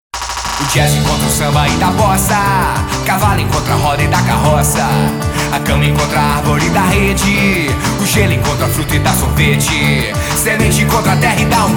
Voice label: JINGLE VOZ; CAFE SANTA CLARA